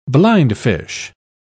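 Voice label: "blindfish", British English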